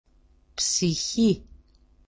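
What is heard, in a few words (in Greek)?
"psihi", Greek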